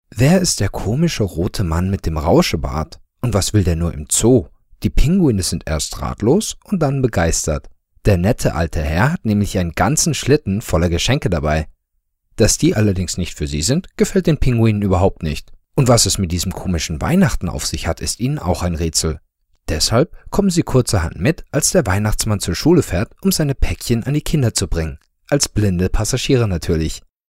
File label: German-Childrens Book